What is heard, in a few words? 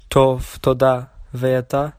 fine, hebrew; טוב, תודה. ואתה?; (tov, toda. veata?)